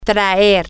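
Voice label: to bring, Spanish, female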